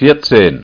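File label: "vierzehn", German; ZAEHLEN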